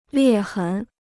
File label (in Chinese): "裂痕", Chinese